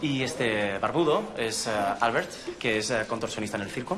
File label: Voice Acting es M